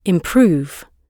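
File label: "improve", British English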